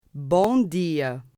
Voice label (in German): portugiesisch